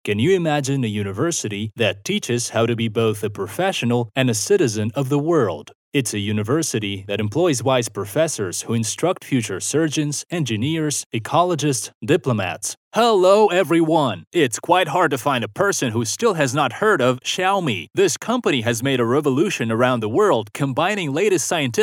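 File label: English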